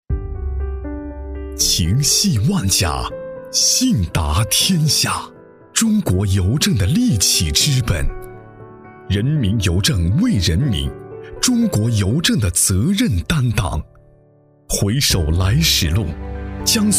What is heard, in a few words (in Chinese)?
情感换气; 情感换气江苏邮政